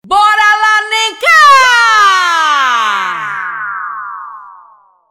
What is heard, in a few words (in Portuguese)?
voz jovem gritada preco a combinar; voz do vetron